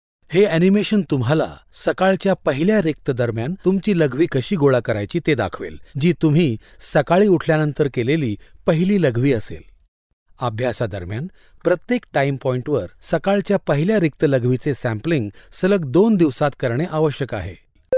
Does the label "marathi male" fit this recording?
Yes